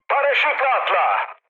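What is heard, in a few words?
Turkish bailout, mood med, speech; War Thunder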